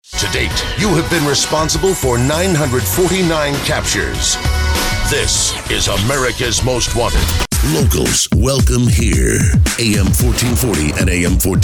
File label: TV RADIO IMAGE